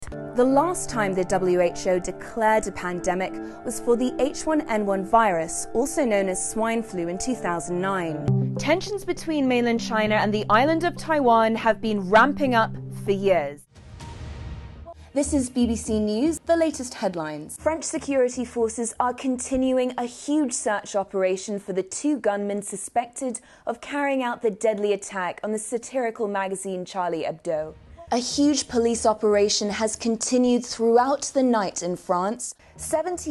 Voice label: UK; AVT Demo